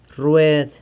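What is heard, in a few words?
"rrued", wheel, San Lucas Quiaviní Zapotec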